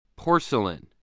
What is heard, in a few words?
"porcelain", English